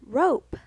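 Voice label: "rope", English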